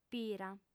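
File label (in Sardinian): "pira", female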